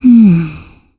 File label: Think, kr; Valance; kings raid